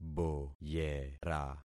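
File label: "boyera", concatenated syllables; Affixing experiment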